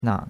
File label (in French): "nang3", chinois; Cours-de-Chinois